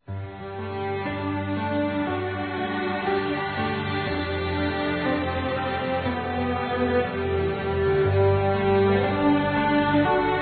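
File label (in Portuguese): Rom declaração, feminino; Telemensagens; Românticas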